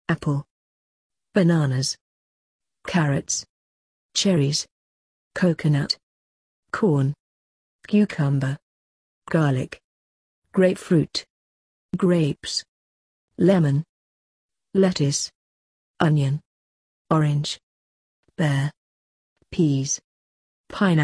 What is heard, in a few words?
uk; fruits veg